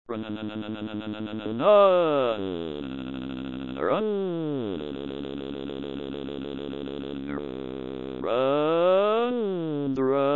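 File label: dectalk car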